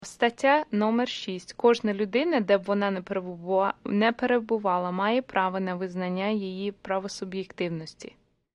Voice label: Ukranian